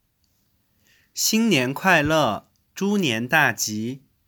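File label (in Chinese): xinnian kuaile zhunian daji, male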